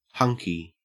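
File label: "hunky", Australian English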